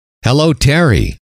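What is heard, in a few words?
"hello terry", male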